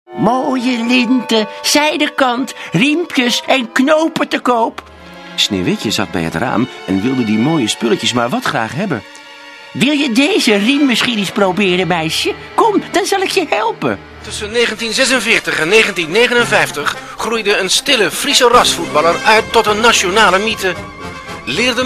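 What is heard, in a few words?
Dutch, male, character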